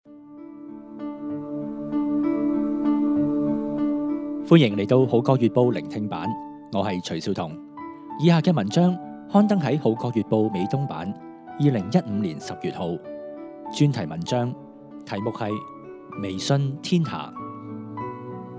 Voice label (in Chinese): Cantonese